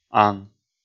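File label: "ан", Komi, male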